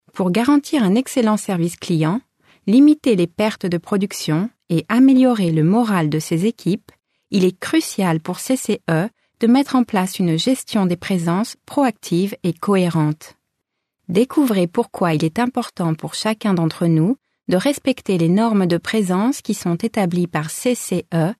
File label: French EU F